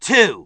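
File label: "two", spoken word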